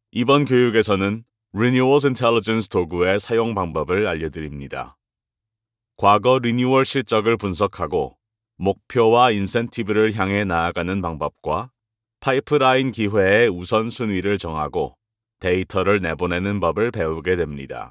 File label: korean e-learning